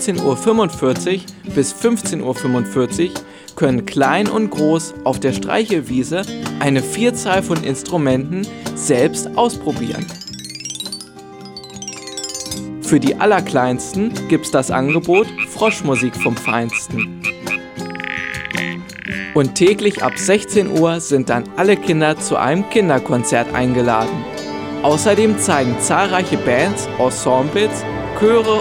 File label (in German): Spot Musikschulwoche; Tonkuhle; Musikschulwoche 2022